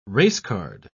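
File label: "racecard", English, uk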